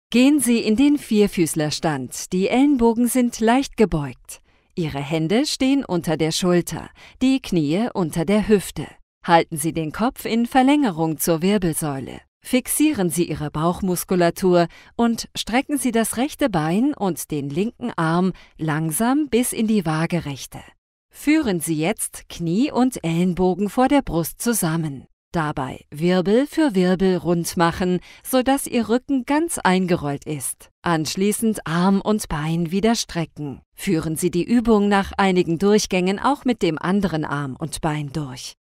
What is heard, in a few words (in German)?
APP Rueckenschule empathisch-warm